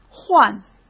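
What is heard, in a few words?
"换", Mandarin Chinese; hsk; chinese-flashcards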